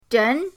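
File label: "zhen", Mandarin pinyin, second tone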